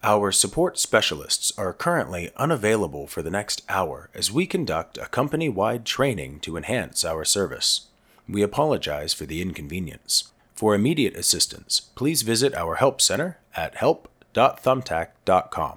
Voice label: Training, Professional training module narration with clear delivery and instructional pacing; 1 Hour Training; Commercial Thumbtack